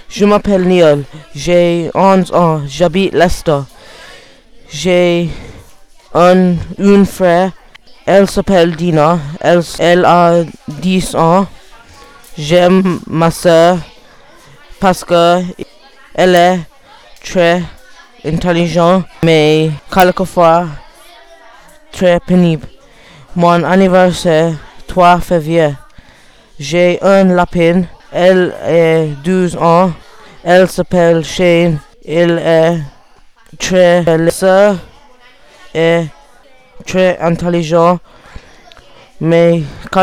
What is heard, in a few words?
unit 1 speaking test